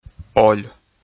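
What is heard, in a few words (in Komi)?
"оль", Komi, male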